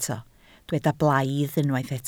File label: "blaidd", Welsh